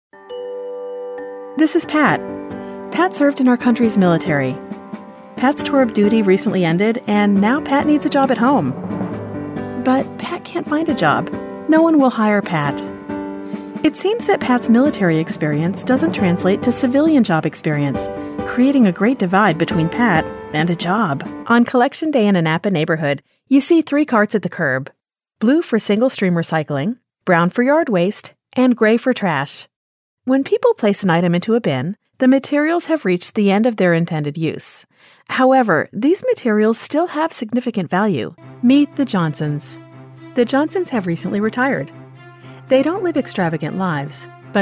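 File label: American English narration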